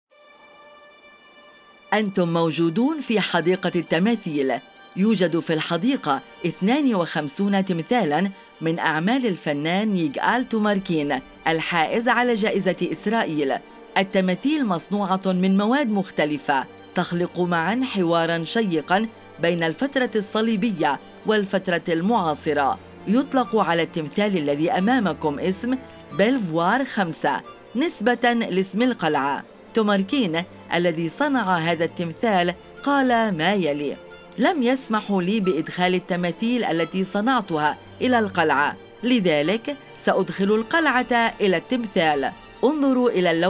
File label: Arabic